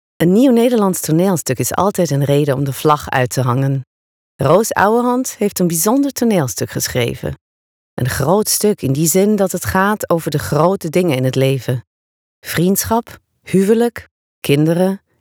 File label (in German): Holländisch